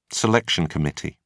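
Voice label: "selection committee", British English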